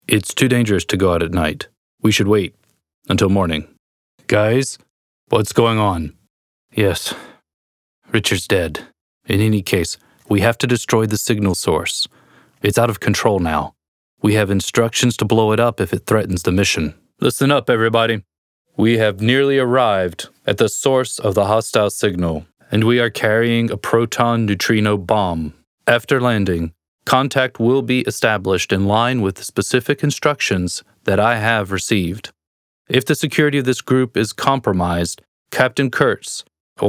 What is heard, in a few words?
movie US